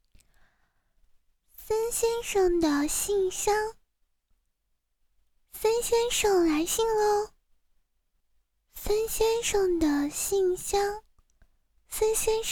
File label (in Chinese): 提示音温柔萝莉